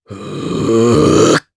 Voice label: Casting, jp; Riheet; kingsraid; voices heroes

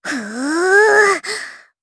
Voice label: Vox Casting, jp; kingsraid; voices heroes jp

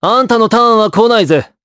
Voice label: Vox Skill jp; Esker; kingsraid

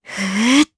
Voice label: Casting, jp; Laudia; kingsraid

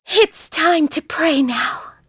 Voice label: Vox Skill, en; kingsraid; voices heroes en

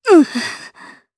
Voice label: Vox Damage jp; Nicky; kingsraid; voices heroes

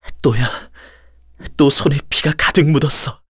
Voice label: Victory, kr; Ezekiel; kingsraid

